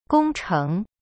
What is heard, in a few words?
"工程" gong cheng, Chinese; cyberchinese